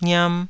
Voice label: "ngiam2", taiwanese syllables